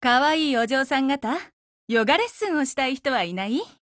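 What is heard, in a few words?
Japanese, Voice; BLACK ACTION; GF Alarm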